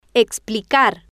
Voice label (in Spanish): "explicar", Spanish